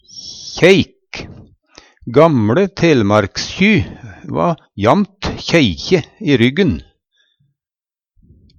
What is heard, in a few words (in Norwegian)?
kjeik, Numedalsmål